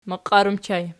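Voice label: "maqiar" ergative plural, Archi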